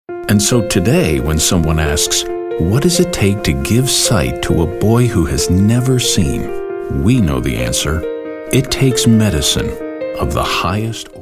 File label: Caring